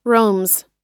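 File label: "roams", American English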